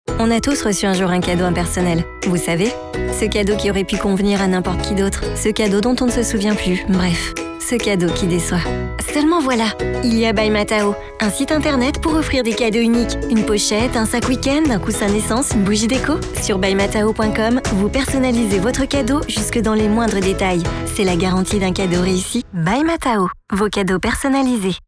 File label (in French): spot, voix off publicitaire commercial